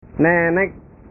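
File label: "nenek", Indonesian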